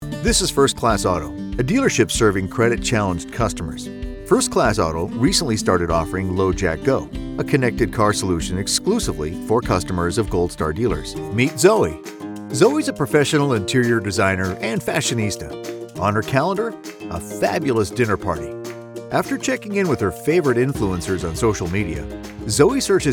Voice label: corporate VO; demo